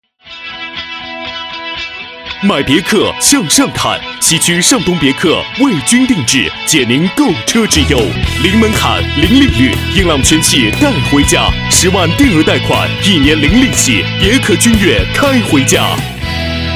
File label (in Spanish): Locutor varón chino